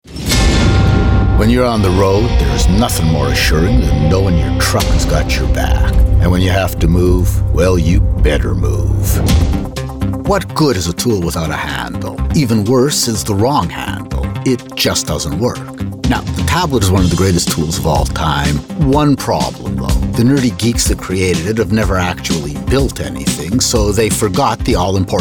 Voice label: commercial; TaDa

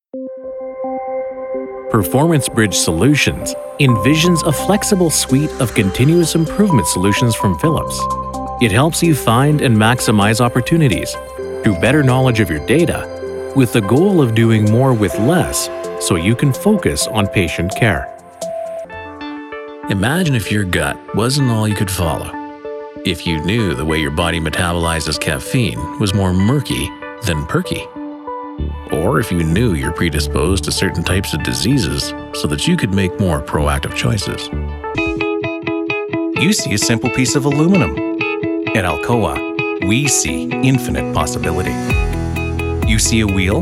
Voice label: Narration; TaDa

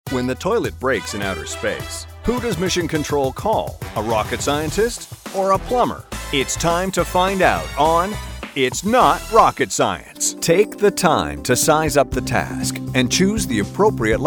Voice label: narration; TaDa

